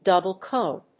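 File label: "Double cone", English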